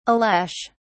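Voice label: "ales", British English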